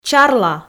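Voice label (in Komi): "чарла", Komi, female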